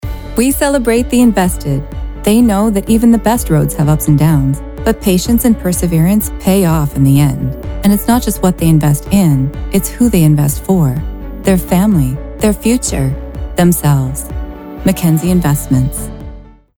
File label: spot; McKenzie Investments